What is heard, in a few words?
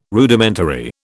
"Rudimentary", British